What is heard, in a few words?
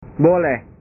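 "boleh", Indonesian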